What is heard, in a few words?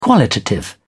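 "qualitative", English, British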